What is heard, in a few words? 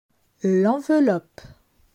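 "L enveloppe", French; grammaire; les articles definis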